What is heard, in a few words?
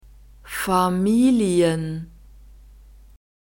"familien", German